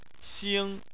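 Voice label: "xing", Chinese, first tone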